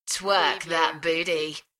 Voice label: UK female; beanstalk audio